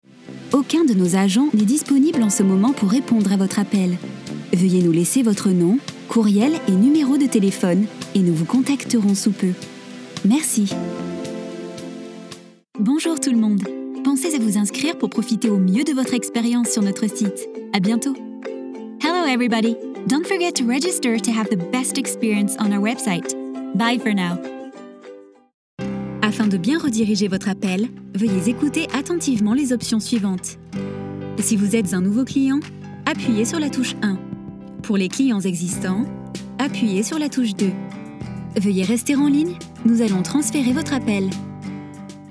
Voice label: IVR FR EN